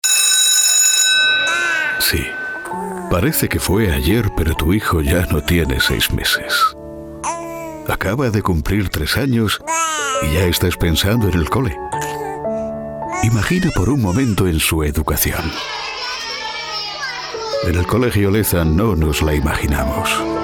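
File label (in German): Spanish, Werbung Sanft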